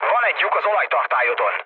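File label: Hungarian damaged oil mood low, speech; War Thunder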